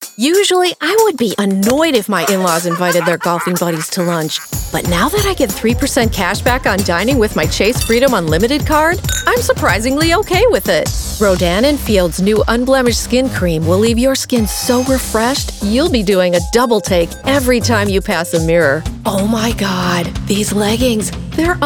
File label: Commercial